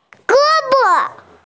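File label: "arm", hearing impaired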